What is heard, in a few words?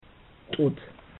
"tut", amharico